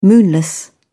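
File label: "moonless", British English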